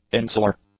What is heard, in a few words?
"insular", English; adjectives